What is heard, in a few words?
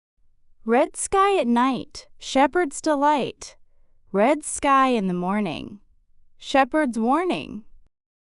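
female US, classic rhymes; Red Sky at Night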